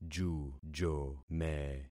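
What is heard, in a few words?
"jujome", concatenated syllables; Affixing experiment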